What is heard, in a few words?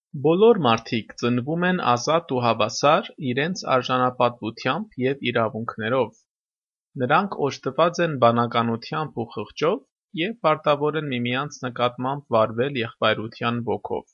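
Armenian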